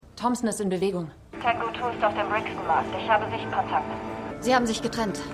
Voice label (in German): Technikerin Funk; NCA Technikerin; Suspicion